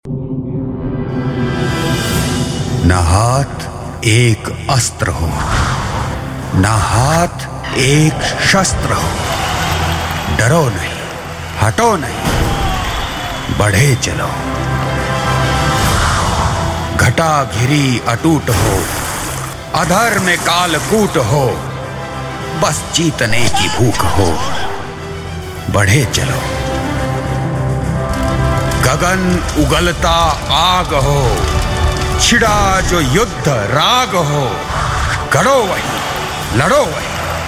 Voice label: hindi, male voice